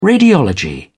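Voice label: "radiology", British English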